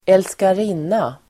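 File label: "älskarinna", Swedish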